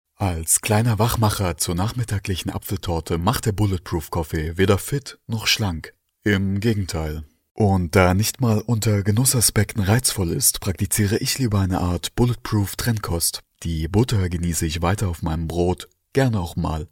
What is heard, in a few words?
German male